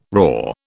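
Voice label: "roar", British English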